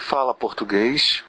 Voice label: do you speak, Brazilian Portuguese; Fala português?